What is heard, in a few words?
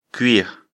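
"cuire", French; afrikalan; lecture fr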